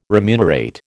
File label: "remunerate", American